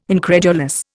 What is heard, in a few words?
"incredulous", Indian